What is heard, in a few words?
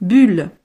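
"bulle", French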